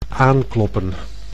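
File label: "aankloppen", Dutch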